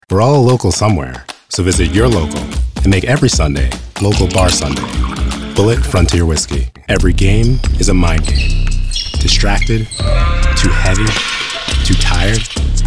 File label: Commercial